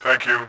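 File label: global thanks, voice, Bot; Tribes2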